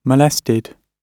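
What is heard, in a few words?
"molested", British English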